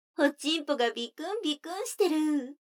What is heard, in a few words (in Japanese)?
女の子台詞, ASMR